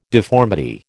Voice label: "deformity", American

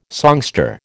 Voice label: "songster", American

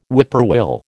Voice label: "whippoorwill", American

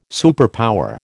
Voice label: "superpower", American

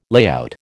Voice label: "layout", American

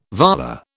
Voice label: "volar", British

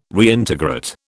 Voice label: "Reintegrate", British

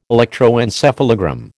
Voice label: "electroencephalogram", American

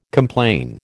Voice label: "complain", American

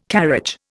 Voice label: "carriage", Indian

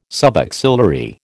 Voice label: "subaxillary", American

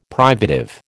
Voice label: "privative", American

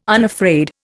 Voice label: "unafraid", Indian

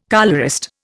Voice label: "colourist", Indian

